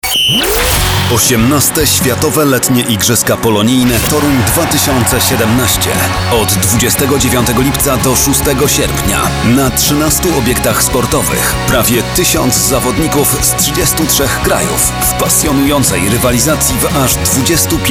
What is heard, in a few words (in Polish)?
promo; igrzyska polonijne 2017